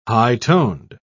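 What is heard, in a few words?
"high-toned", English, British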